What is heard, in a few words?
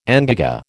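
"engagé", American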